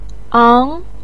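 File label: "ang2", Mandarin Chinese, second tone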